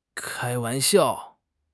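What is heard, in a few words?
"kai1 wan2 xiao4", Mandarin Chinese; HSK4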